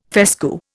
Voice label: "fescue", Indian

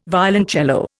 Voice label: "violoncello", Indian

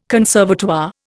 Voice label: "conservatoire", Indian

